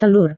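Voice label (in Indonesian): "telur", Indonesian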